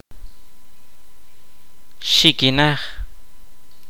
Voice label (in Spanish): "ala", Kaqchikel